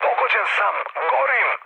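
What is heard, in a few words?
Serbian on fire mood low, speech; War Thunder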